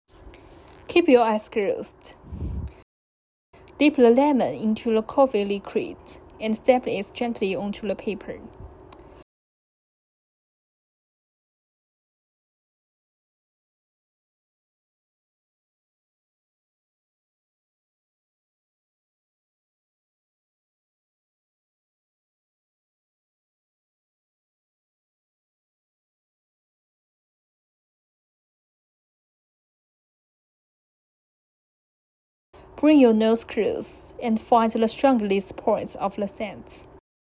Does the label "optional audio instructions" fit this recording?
Yes